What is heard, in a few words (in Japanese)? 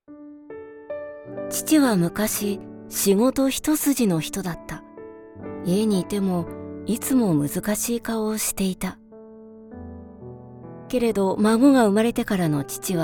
モノローグ; VOICE SAMPLE